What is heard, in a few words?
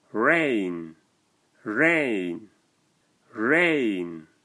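"Rain", English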